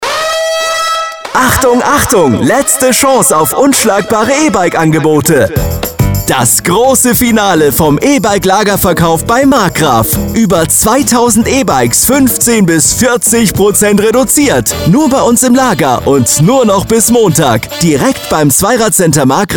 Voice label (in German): werbespot; e-bike; Pegasus Solero Evo 9